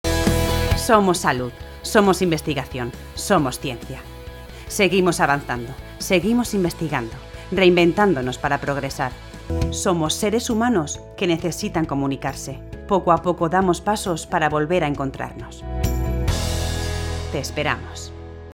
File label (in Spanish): corporativo reflexivo inspiracional, locutora